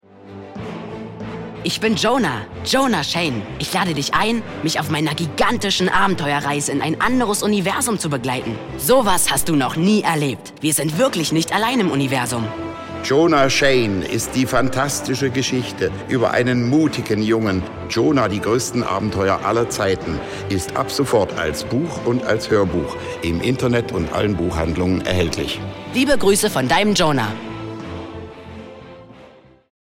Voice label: Radiospot